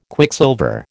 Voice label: "Quicksilver", American